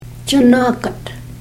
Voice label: "chanaakat", Karuk